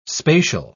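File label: "spacial", English, British English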